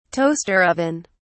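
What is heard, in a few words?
"toaster oven", British English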